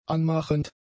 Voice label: "anmachend", German; adjectives